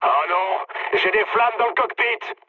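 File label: French on fire mood low; War Thunder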